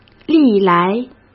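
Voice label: "历来", Mandarin Chinese; chinese-flashcards; hsk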